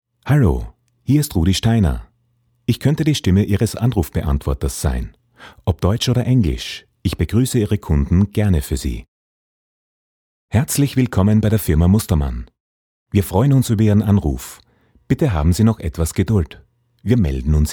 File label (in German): Sprecher Telefonansagen